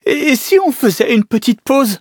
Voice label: defeat, fr; Cookie Run